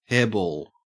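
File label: "hairball" Australian English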